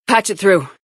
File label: Fem; Me3; Shepard